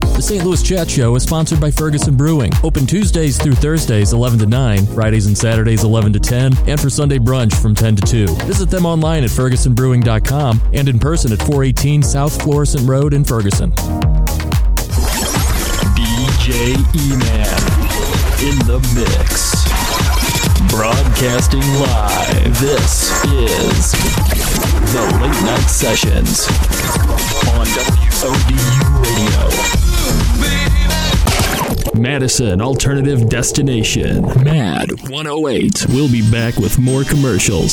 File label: Podcast Voiceovers